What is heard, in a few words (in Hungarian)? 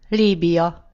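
"libya", Hungarian; gcompris; geography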